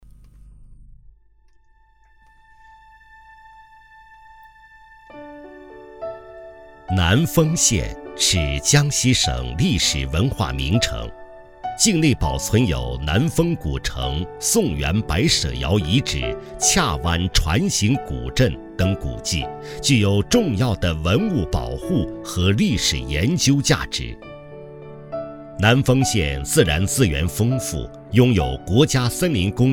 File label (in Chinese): 男 专题 沙盘介绍; 南丰